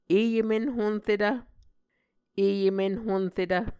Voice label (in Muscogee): "e emenhonretv", Muscogee